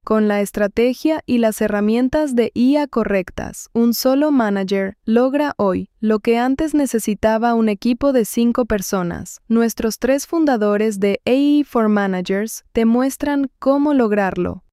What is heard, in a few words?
narration